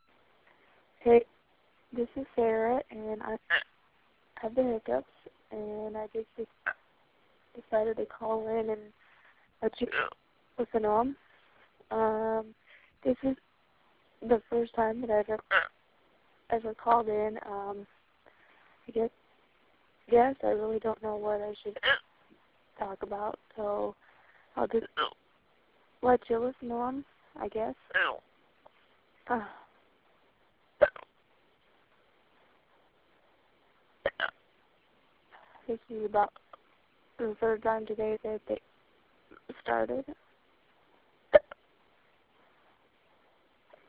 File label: phone msg